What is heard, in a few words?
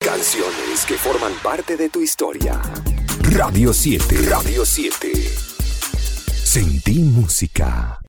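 Spanish Latin America, radio announcement; GoLocalise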